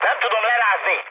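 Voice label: Hungarian aircraft damaged mood high, speech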